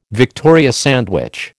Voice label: "Victoria sandwich", American

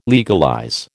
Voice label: "legalize", American

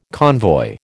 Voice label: "convoy", American

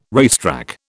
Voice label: "racetrack", British

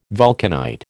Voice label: "vulcanite", American

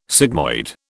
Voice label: "sigmoid", British

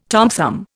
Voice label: "Tom Thumb", Indian

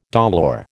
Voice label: "dolour", American

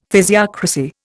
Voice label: "physiocracy", Indian; Dictionary

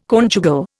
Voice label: "conjugal", Indian

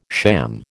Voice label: "sham", American

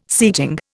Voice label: "seating", Indian

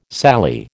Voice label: "Sally", American

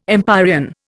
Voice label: "empyrean", Indian

